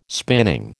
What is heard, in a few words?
"spinning", American